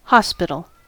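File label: "hospital", American English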